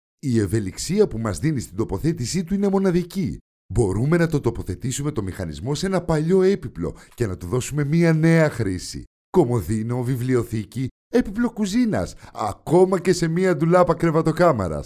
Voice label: greek promos